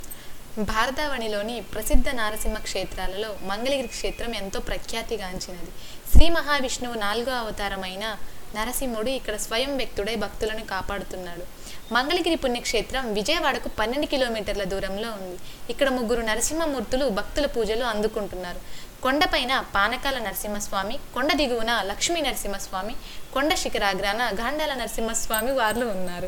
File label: Telugu